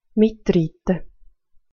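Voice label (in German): "mitrite", Swiss German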